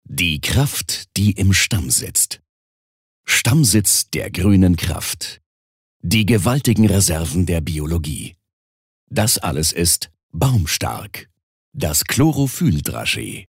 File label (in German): Werbung Kraftvoll